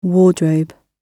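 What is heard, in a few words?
"wardrobe", British English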